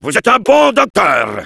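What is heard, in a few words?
Heavy thanks for the heal, French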